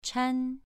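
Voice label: "chan" Mandarin, second tone, pronunciation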